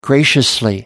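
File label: "graciously", English, British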